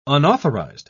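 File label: "unauthorised", British English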